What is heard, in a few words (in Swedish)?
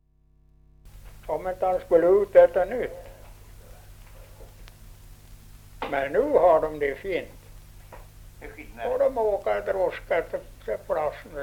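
Ovansjö Gästrikland, Svenska sagor, dialekter; Folkminnen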